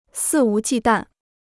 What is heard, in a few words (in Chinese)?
"肆无忌惮", Chinese